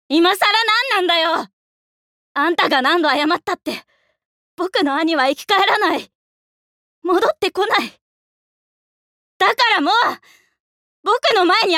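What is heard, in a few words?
voice sample, boy with a dark past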